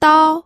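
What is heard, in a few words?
"dao", pinyin, first tone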